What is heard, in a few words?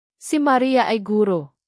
"ang", Tagalog